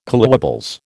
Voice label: "collywobbles", American